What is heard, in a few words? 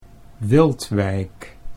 "Wiltwyck", Dutch